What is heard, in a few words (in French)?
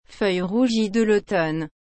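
"feuille rougies de lautomne", French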